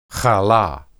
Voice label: "halah", Hebrew